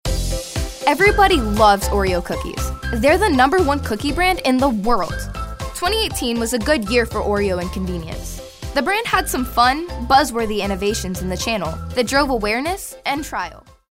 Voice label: friendly

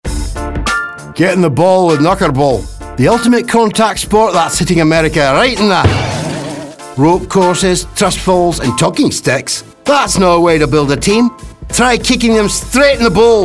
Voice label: Scottish; Knockerball

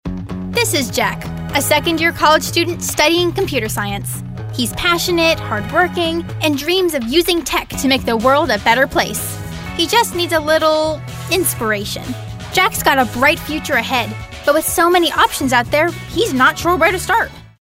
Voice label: young

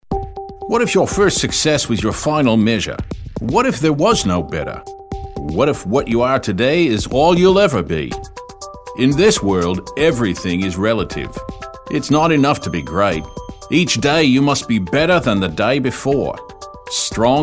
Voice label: Australian

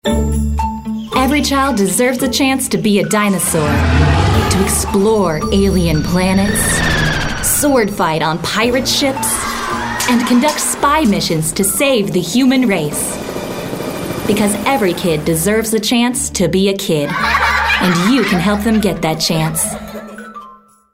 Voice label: Friendly

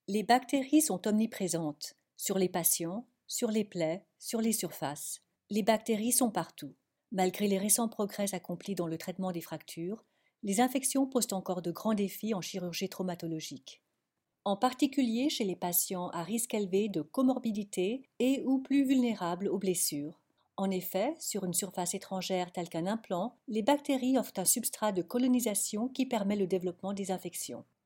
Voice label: French Medical; PROtect

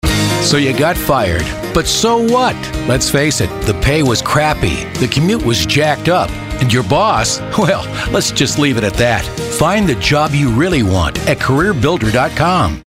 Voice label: motivational announcer

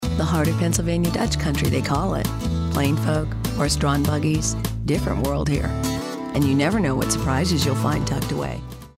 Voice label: folksy homespun

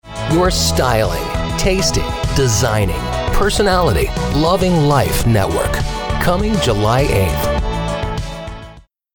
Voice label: Television Promo

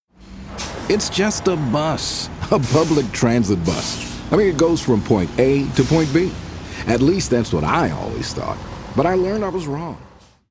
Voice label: guy next door

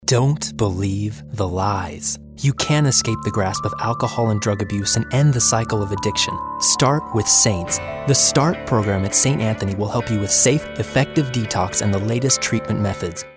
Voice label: caring

